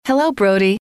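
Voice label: hello, female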